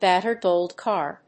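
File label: "battered old car", English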